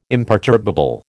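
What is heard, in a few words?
"imperturbable", American English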